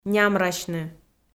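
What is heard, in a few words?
"нямрасьны", Komi, female; kpv audio dict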